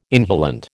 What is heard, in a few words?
"inhalant", American